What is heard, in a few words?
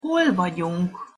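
hol vagyunk, Hungarian pronunciation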